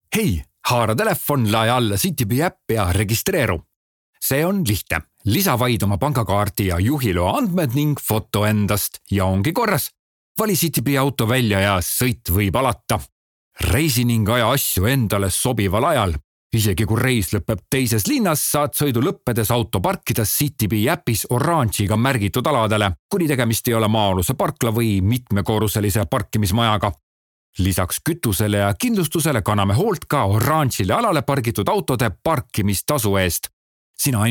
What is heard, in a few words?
Estonian M Explainer